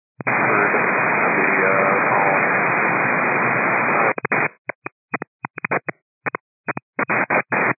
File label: 122 725MHz, scanner radio